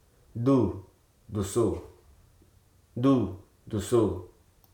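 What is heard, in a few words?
"involve", spoken word; verb involve; (स्थिति या घटना मे) कुछ भाग के रुप मे शामिल रहना, करना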